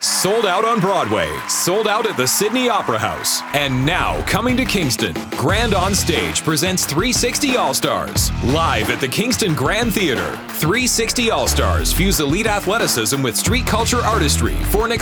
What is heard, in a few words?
RADIO SPOT; Grand OnStage 360 AllStars; commercials psas